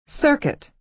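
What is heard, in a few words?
"circuit", American English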